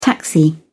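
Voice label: "taxi", British English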